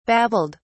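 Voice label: "babbled", British English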